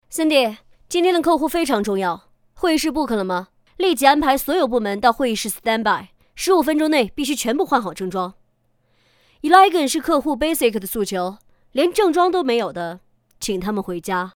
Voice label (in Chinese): 女 御姐